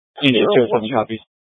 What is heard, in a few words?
Fire Departments Mesa Simulcast; TOPAZ